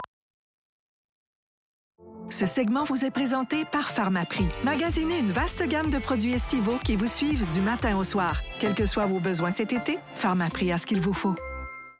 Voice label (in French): VOIX, Démo Voix Publicité; Pharmaprix